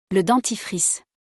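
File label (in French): "Le dentifrice", French; vocabulaire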